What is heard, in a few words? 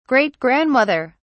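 "great grandmother", British English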